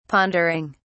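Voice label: "pondering", British English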